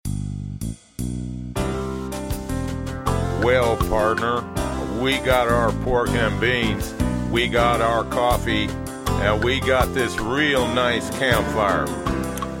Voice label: bbs radio promo